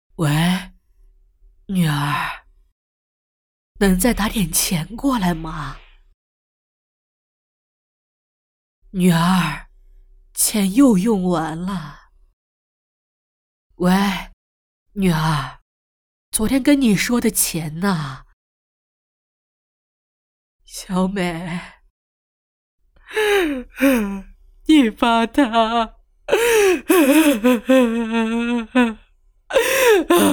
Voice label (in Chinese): 妈妈女儿